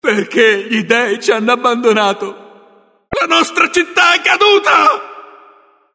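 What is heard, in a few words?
entrance, Italian; God of War Collection Volume 2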